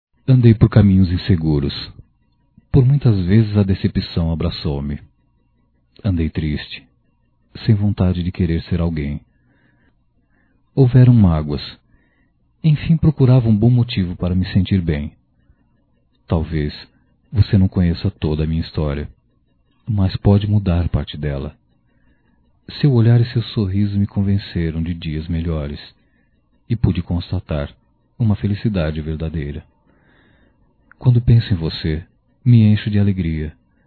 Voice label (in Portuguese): Romantica Masculino